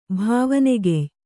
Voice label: "ಭಾವನೆಗೆಯ್", Kannada

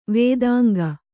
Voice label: "ವೇದಾಂಗ", kannada

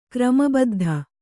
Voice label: "ಕ್ರಮಬದ್ಧ", kannada

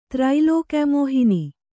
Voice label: "ತ್ರೈಲೋಕ್ಯಮೋಹಿನಿ", Kannada

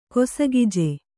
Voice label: "ಕೊಸಗಿಜೆ", Kannada

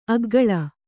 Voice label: "ಅಗ್ಗಳೆ", kannada

